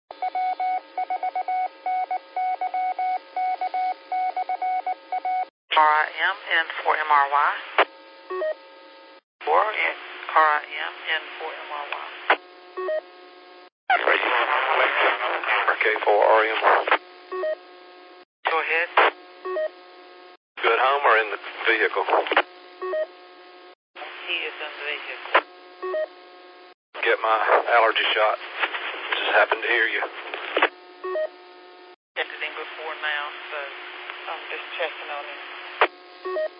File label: qso rec simplex logic